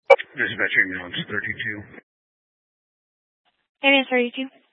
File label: Grand Junction Fire Department Dispatch